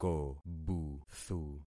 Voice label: "kobuthu", concat syllables; Affixing experiment